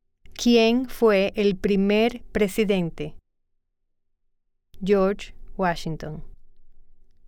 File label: Spanish